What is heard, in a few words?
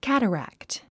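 "cataract", English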